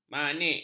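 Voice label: "manik", Malay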